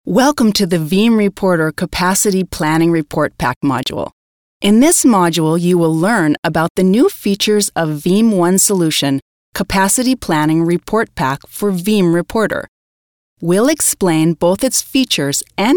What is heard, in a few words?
eng